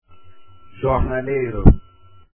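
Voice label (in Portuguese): "jornaleiro" Portuguese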